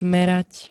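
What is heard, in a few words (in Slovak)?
"merať", Slovak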